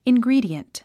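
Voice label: "ingredient", English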